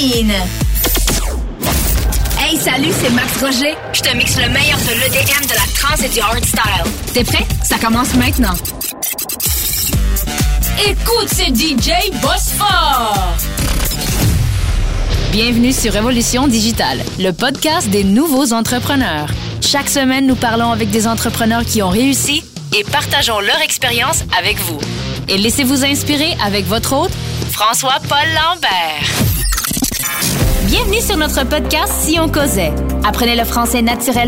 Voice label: french canadian radio imaging